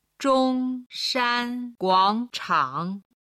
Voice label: "zhong shan guang chang", Chinese